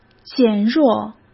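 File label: "减弱", Mandarin Chinese; hsk; chinese-flashcards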